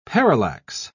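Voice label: "parallax", British English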